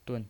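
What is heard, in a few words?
"dun" Mandarin Chinese, neutral tone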